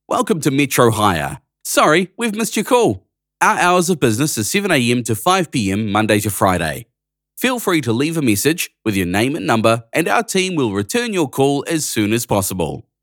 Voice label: Welcome, professional voicemail greeting; MetroHire

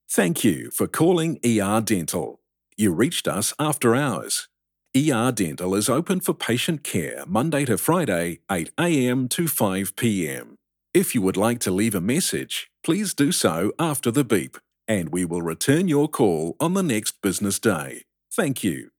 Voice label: Phone ER Dental After Hours Voicemail; professional voicemail greeting

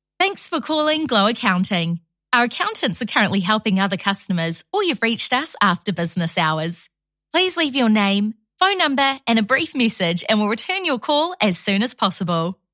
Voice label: accounting voicemail; glow; accounting phone greetings

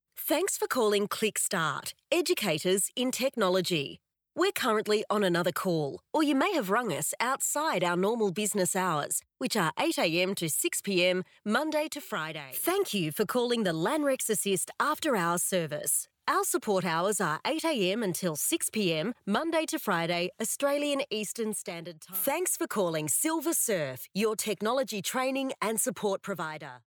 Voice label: AU Female Voice Talent Phone

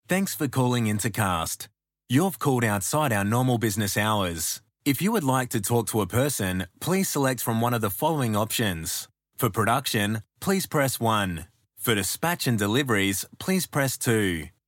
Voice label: Voicemail Greeting; Intercast

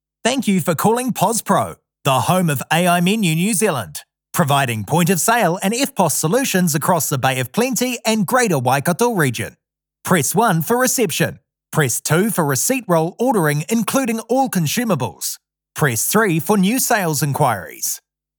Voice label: Phone Welcome Greeting; Calnar Business Waikato

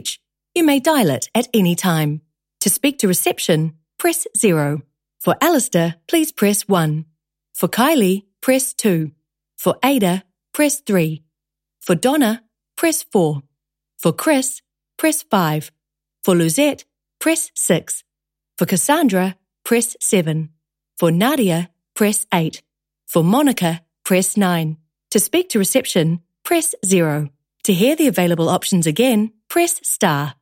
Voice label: law legal voicemail; asco legal; law firm phone greetings

